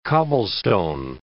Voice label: "cobblestone", English